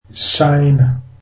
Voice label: zulu Chinese

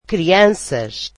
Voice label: "children", Portuguese

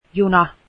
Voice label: train, finnish

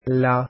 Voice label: arabic alphabet laa

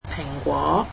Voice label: "apples", Cantonese

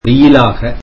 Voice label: "sunny", tamil

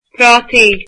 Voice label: potatoes, irish

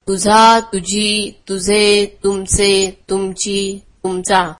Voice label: marathi your

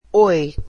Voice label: "hi", Portuguese

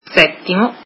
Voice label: italian seventh

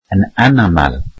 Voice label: welsh rarely

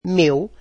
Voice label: portuguese my

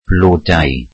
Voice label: welsh flowers

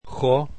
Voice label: esperanto alphabet hx

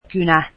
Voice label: pen, finnish

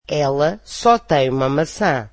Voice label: portuguese she only has one apple

